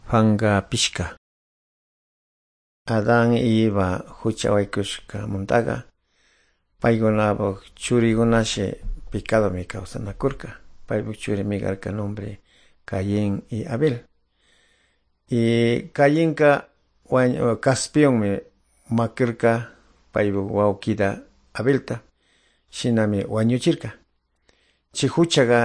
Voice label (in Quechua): good news quichua de calderon